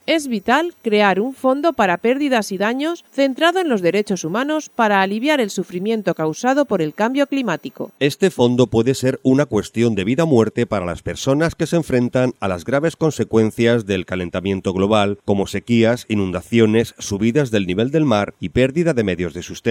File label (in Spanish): informativo; fondo ddhh cambio climatico